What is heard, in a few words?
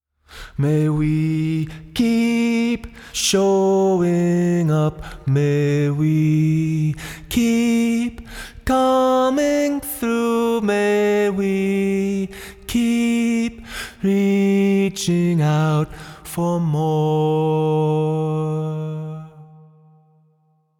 vocals hi dens plate